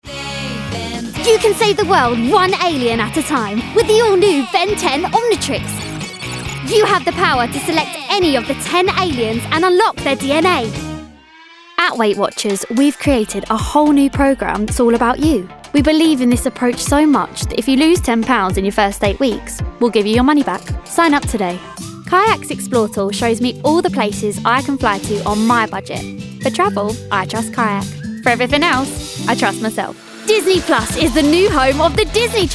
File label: Commercial